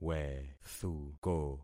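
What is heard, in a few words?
"wethuko", concatenated syllables; Affixing experiment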